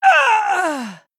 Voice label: Male Sylvar Death